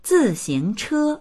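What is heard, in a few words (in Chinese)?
"zi4xing2che1", Mandarin Chinese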